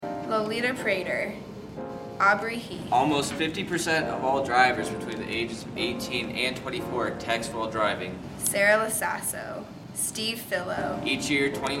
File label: radio PSA, teen safety; colorado teen drivers